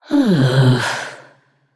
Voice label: Female Naga Thinking